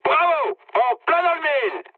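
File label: French bomb success mood high; War Thunder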